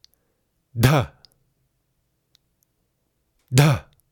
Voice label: "dha", Sanskrit